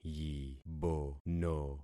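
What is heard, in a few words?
"yibono", concatenated syllables; Affixing experiment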